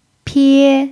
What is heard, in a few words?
"pie1", Mandarin pinyin